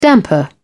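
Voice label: "damper", British English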